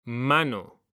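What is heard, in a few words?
"mano", Spanish, male; mekanta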